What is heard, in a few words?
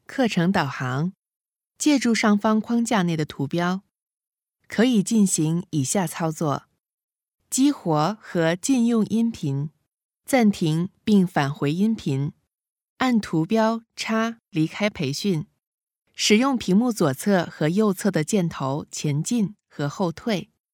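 Mandarin Chinese, female, voice over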